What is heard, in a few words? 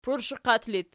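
"pursheqat" locative, Archi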